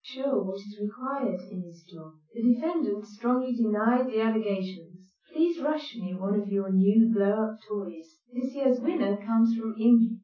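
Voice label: female speech; SiSEC10; underdetermined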